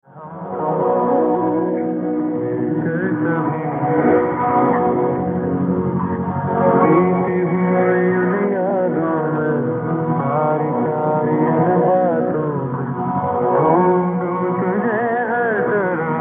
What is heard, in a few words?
english, 7550 KHz; All India Radio, Bengaluru, India